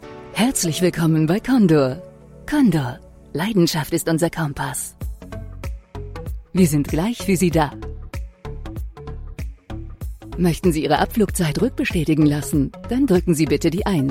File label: telefon german